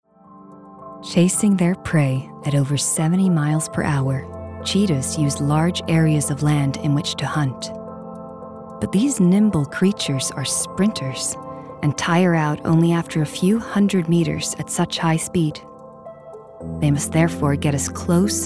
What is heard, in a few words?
US documentary